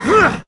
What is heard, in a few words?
human man, monster dragonman